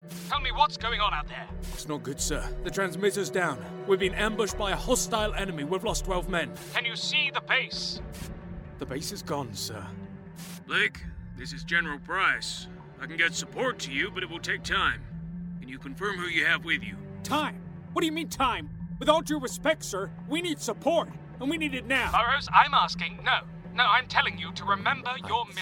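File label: British English, Characters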